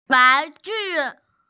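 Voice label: "wan ju", Mandarin Chinese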